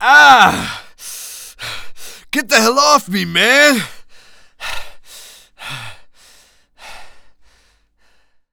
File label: Panic; zps; Humans; HumanPunk